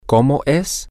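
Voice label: what is it like, Spanish, male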